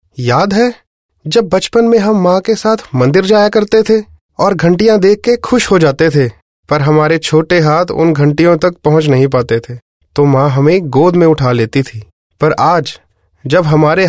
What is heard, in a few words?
Hindi, male voice; Kumbh